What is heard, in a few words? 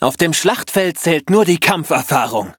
level up, de; Cookie Run